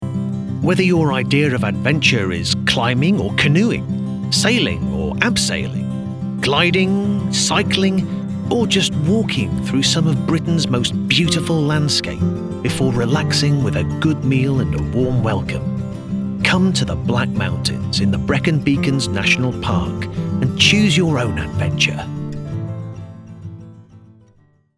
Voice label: promo